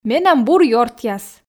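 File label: Komi, female; менам бур ёртъяс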